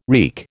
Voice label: "wreak", American

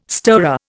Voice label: "stirrer", Indian

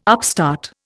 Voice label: "upstart", Indian

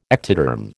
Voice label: "ectoderm", American

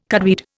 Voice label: "cudweed", Indian

